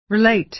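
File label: "relate", American English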